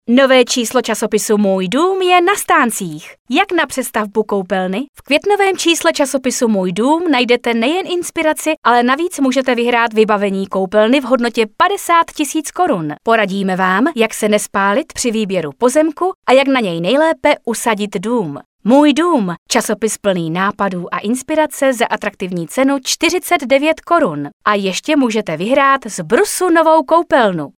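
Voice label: female Czech commercial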